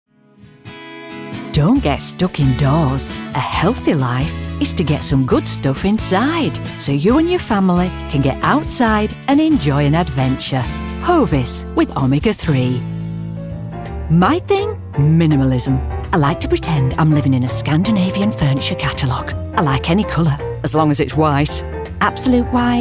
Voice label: Commercial